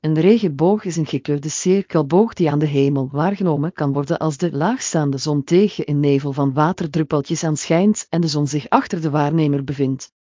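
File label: speaker, American English; piper-voices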